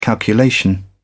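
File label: "calculation", English, British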